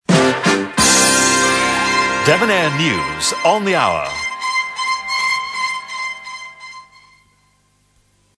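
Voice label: news in voice over, idents; devonair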